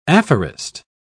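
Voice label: "aphorist", British English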